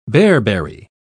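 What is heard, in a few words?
"bearberry", English, British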